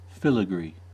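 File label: "filigree", US English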